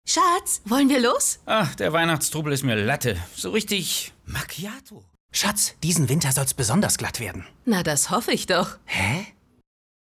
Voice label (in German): Werbung Szene; OTTO